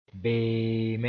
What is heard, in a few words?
"beeme", Mooré